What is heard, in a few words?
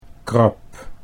"krab", Dutch